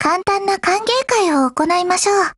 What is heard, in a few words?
BUILD OVER JP; GF Alarm